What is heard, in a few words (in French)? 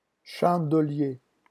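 "chandelier", French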